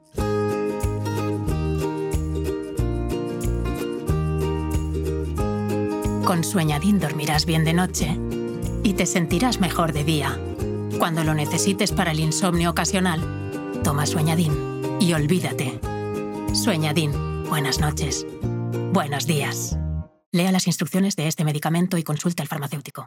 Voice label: commercial, spanish, female